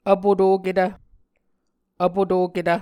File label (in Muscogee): "vpotoketv", Muscogee